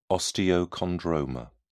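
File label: "osteochondroma", British English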